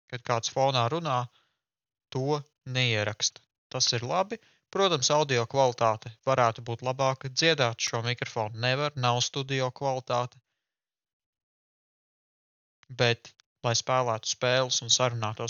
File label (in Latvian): audio tests caur austiņām